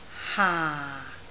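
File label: "haa4", Cantonese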